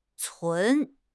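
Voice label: "cun2", Chinese; HSK4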